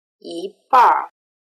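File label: "yibanr" Chinese word; erhua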